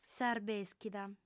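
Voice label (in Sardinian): "s'arbèschida", female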